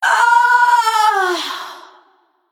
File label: Female Celestial Death